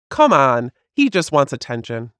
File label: Female